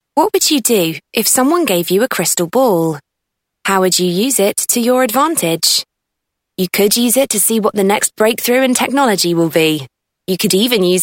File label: UK